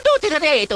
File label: gibberish; mario